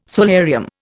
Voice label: "solarium", Indian